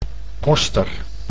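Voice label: "koster", Dutch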